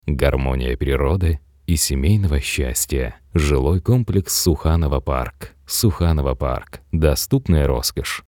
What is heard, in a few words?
Commercial - Soft Selling, Russian, men